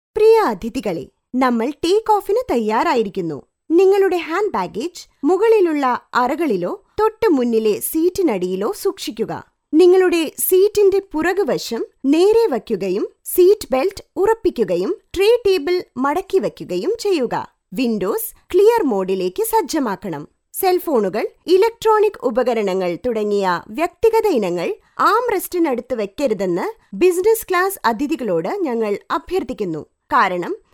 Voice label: malayalam female